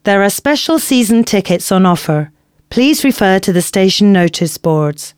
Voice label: announcements female